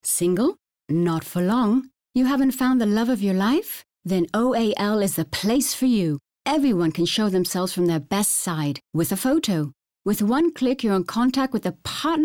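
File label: uk englisch, British English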